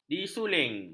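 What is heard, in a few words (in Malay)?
"disuling", Malay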